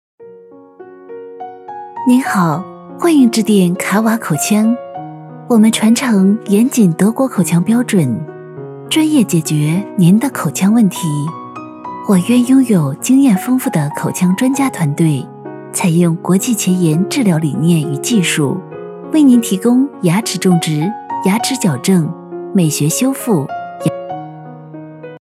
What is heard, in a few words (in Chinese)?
女 彩铃 您好